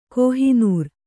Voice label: "ಕೋಹಿನೂರ್", Kannada

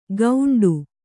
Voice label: "ಗೌಂಡು", kannada

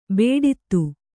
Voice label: "ಬೇಡಿತು", Kannada

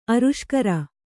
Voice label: "ಆರುಷ್ಕರ", Kannada

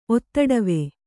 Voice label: "ಒತ್ತಡವೆ", Kannada

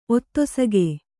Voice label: "ಒತ್ತೊಸಗೆ", Kannada

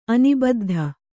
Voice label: "ಅನಿಬದ್ಧ", Kannada

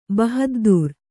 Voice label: "ಬಹಾದ್ದೂರ್", kannada

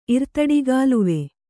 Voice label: "ಇರ್ತಡಿಗಾಲುವೆ", Kannada

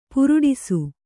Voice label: "ಪುರುಡಿಸು", kannada

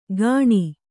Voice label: "ಗಾಣಿ", Kannada

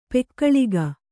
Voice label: "ಪೊಗಳಿಕೆ", Kannada

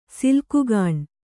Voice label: "ಸಿಲ್ಕುಗಾಣ್", Kannada

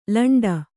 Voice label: "ಲಂಡ", Kannada

